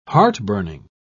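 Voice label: "heartburning", British English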